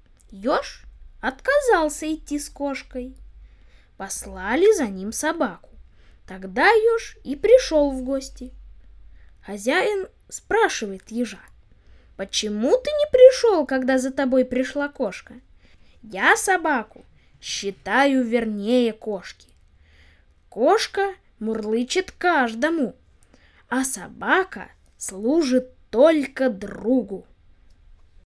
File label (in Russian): чеченская притча; Как ежа в гости звали; Неделя национальной сказки 2024; Развитие национальных культур